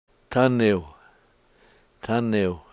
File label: "taniw", Washo, miwak